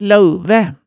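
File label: "laauvæ", Hemsedal dialect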